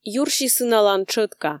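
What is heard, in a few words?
"юрси сыналан тшӧтка", Komi, female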